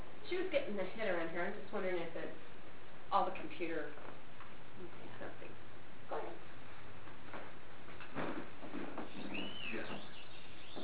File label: dinning room distortied childs voice